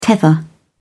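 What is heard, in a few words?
"tether", English, British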